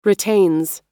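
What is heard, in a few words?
"retains", US English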